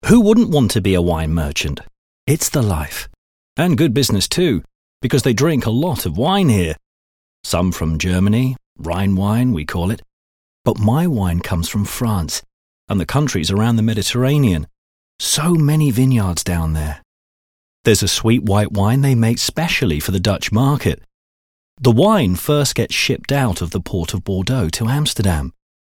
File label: english british narration